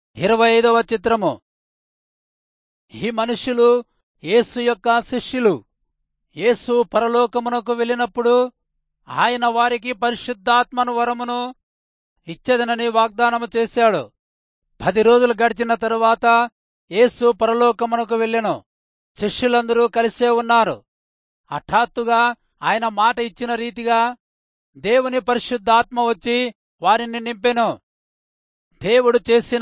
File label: telugu rayalseema; good news